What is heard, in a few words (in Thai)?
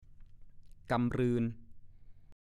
"กำรึน", ภาษาโคราช